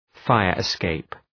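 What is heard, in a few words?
"fire escape", English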